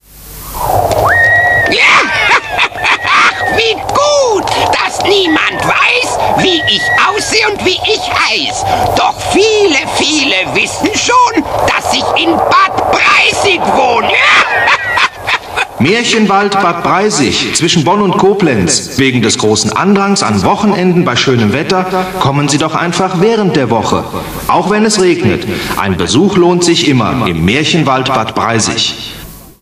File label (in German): Werbung Maerchenwald 90er Jahre